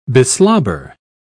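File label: "beslobber", British English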